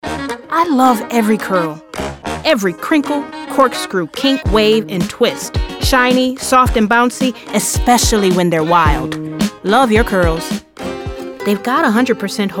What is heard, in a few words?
Commercial VO